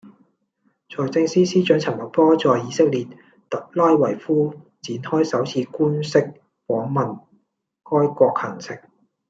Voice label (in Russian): Голоса, Гонконгский